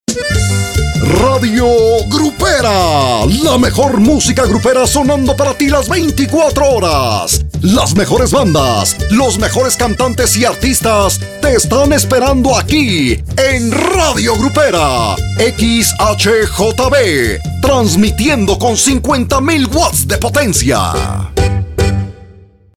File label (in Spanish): locucion tono grupero con musica